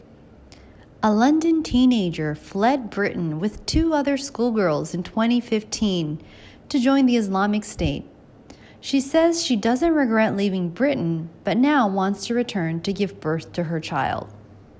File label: British schoolgirl